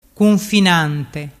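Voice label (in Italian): "cunfinante", Vernazzese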